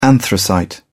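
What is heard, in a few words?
"anthracite", British English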